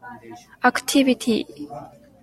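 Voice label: "activity", Japanese, female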